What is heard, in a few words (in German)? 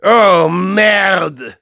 Spy jeers, de; "Ohhhh... merde."; Spy Sprachkommandos